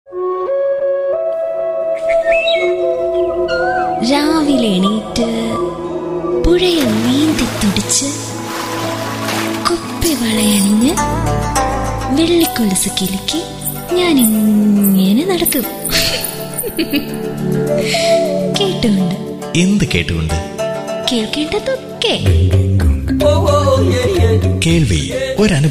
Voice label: PROMO malayalam

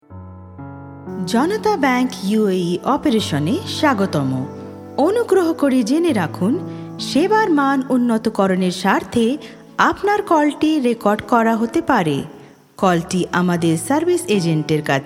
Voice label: ivr bangla

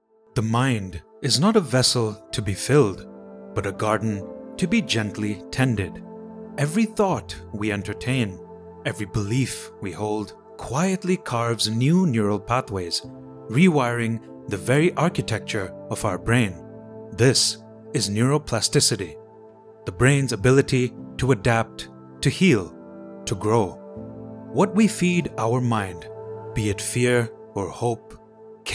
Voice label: Documentary, English Voice Over

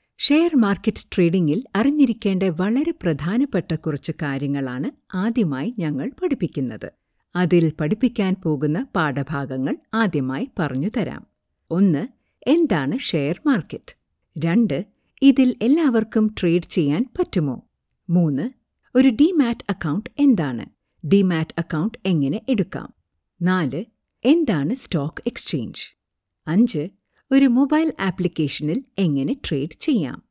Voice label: MALAYALAM CORP

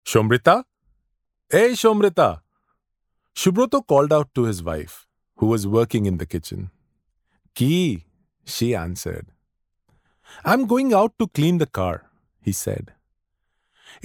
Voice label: STORY ENG

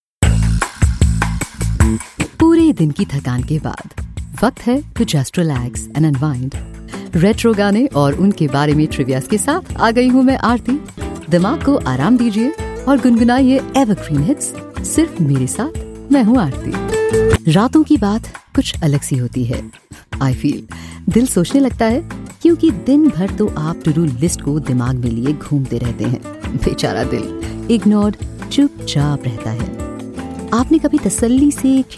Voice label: Hindi Radio link

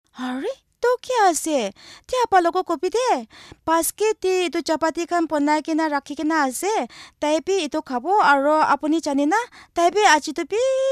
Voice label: Female

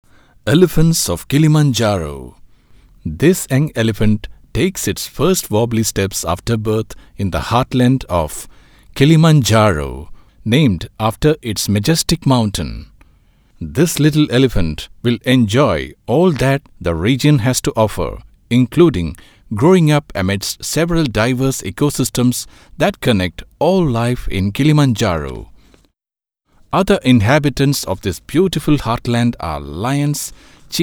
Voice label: ENGLISH DOCUMENTARY

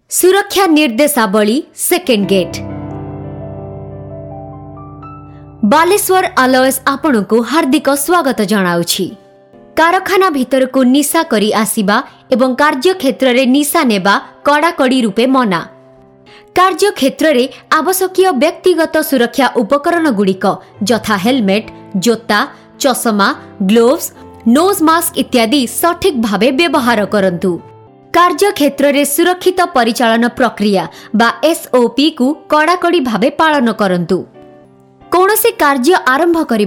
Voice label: oriya

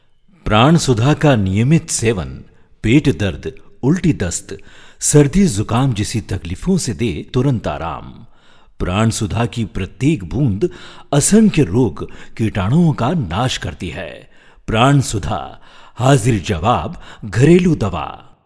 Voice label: AD hindi

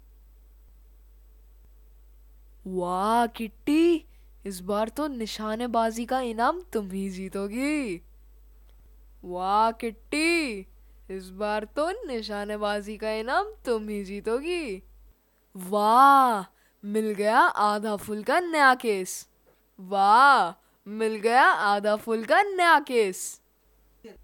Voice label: hindi, Child Voices; Delhi, India

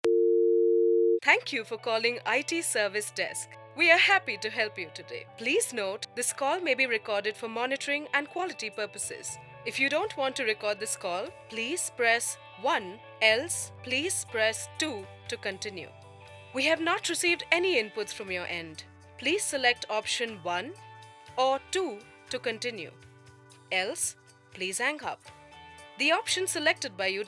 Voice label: English IVR

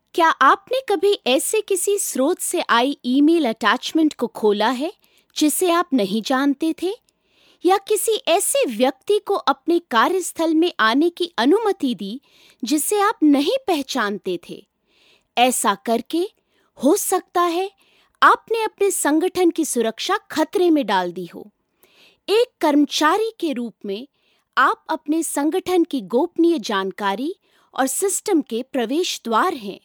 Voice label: Elearn Hindi

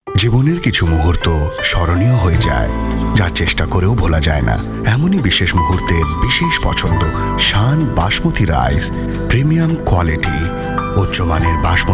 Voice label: TVC bengali

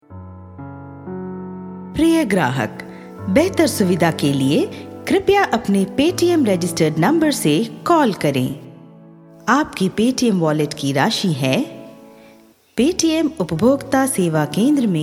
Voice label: ivr hindi

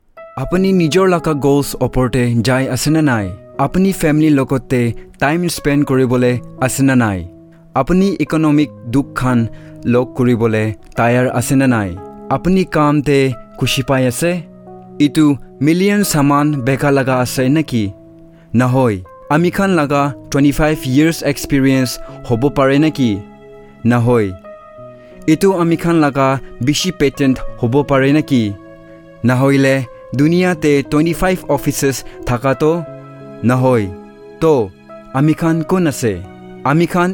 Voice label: explainer nagamese

